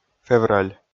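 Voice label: "февраль", Komi, male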